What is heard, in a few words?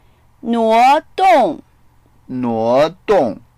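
"nuo2do4ng", Mandarin Chinese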